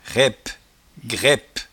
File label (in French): "raippegraippe", patois jura